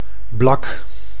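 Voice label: "blak", Dutch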